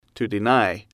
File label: "to deny", English